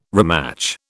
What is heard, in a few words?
"rematch", British